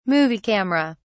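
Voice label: "movie camera", British English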